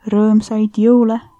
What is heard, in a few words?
christmas, estonian